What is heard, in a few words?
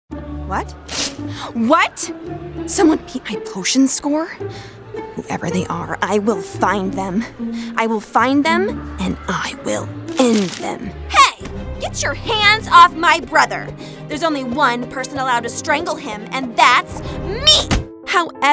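animation female, Voice Over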